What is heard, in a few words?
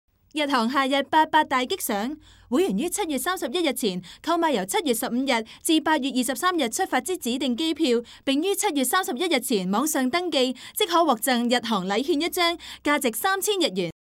CANTONESE female